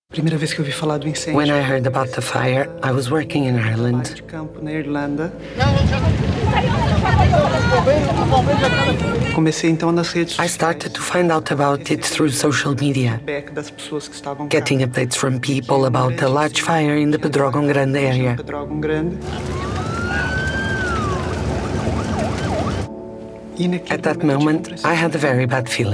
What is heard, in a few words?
Documentary, voice demos; National Geographic